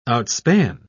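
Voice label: "outspan", British English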